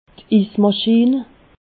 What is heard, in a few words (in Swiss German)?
"ismaschin", alsace